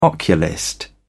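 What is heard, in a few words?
"oculist", English, British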